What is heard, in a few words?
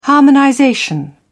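"harmonization", British English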